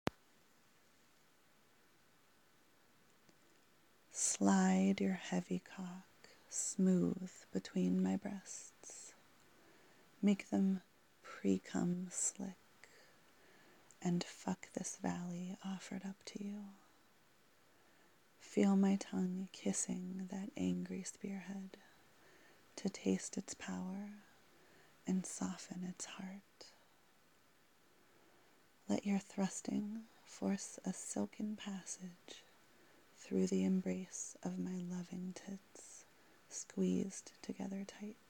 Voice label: Erotic poetry; Slide smooth; 英语音声